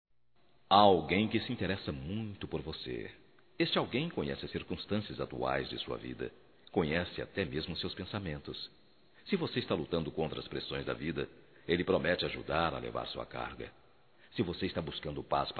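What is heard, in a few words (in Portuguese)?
Otimismo male; Telemensagens 2017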